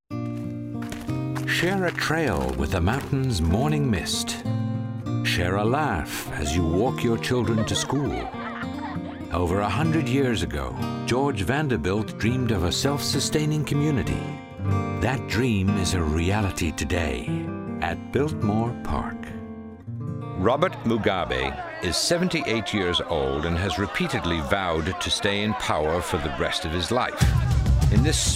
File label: commercials various; Disney